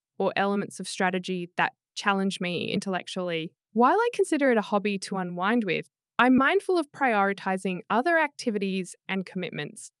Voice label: video game, IELTS